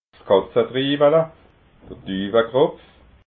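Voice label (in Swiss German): "katzatriwala", Alsatian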